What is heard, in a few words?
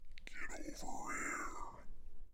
zombie talking zombie zombie